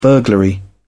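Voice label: "burglary", British English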